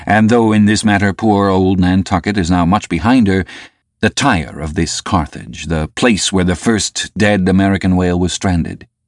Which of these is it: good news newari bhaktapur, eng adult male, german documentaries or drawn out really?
eng adult male